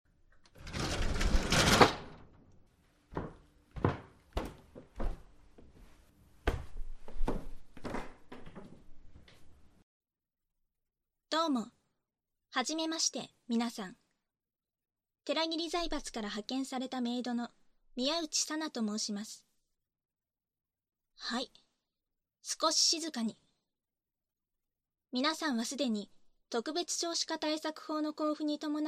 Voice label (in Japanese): メイド現る（バイノーラルマイク収録 効果音あり）, ASMR; バイノーラル編集 効果音あり; 効果音大差分